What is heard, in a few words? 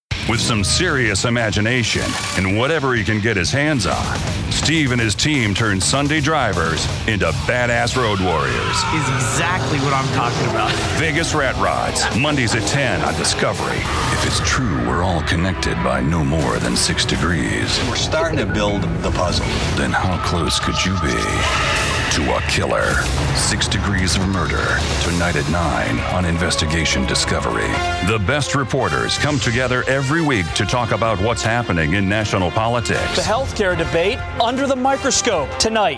TV Promo